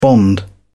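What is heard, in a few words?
"bond", British English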